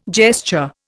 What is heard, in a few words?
"gesture", Indian